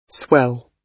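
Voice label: "swell", British English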